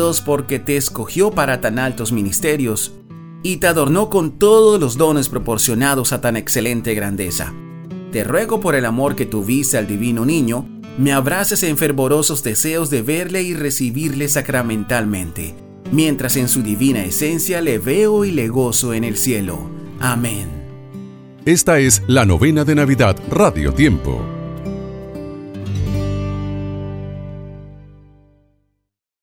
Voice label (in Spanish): Oración a San José Musica Fondo; Novena